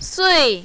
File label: "sui4", mandarin, female